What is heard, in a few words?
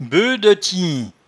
"boeus", patois jura